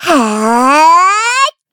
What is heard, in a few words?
Casting, kr; Taily; kingsraid; voices heroes